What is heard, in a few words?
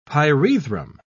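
"pyrethrum", British English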